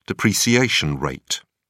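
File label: "depreciation rate", English, British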